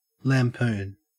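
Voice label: "lampoon", Australian English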